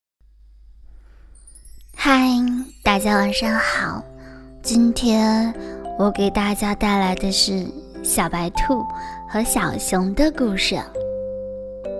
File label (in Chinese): 儿童故事